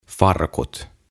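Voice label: "Farkut", Finnish; Jeans; OTAVA KONSERNI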